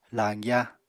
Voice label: "lañgya", Apatani

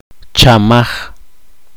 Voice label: poqomchi; tardar

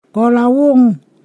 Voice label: "yesterday", Ponosakan

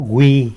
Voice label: "wi" guava, tlacolula

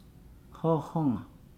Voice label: "hive nest", Nukuoro

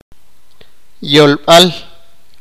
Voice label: "idioma", sipakapense

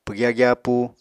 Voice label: "pwgya gyapúu", Apatani

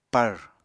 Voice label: "pàr", Apatani

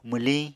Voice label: "mwliñ", Apatani

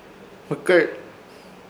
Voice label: "Hmɨkẽr" embarazada, Ishir Chamacoco

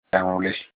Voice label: "eran uli", domesticated animal, Olukumi

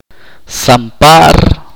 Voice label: "hincharse", chorti

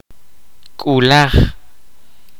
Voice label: kaqchikel; pair

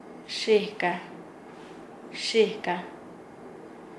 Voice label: "risa", Nasa Yuwe; paez

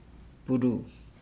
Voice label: "blue", Nukuoro

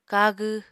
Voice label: "kagw", Apatani

